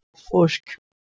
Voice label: "xoSk", Persian